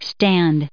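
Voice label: "stand", US English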